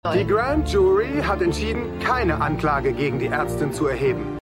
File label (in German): Nachrichtensprecher; Memorial Hospital; MEMORIAL HOSPITAL - DIE TAGE NACH HURRIKAN KATRINA (DF 2022); Five Days at Memorial